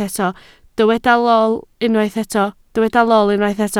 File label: "lol", Welsh